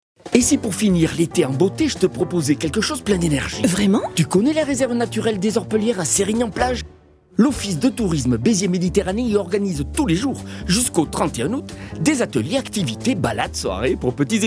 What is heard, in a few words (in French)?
spot radio; les orpellieres; toulouse fm